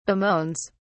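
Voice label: "bemoans", British English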